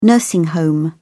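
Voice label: "nursing home", English, British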